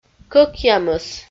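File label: "кӧкъямыс", Komi, female; kpv; audio dict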